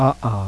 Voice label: glottal stop, Phonetique